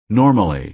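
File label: "normally", American English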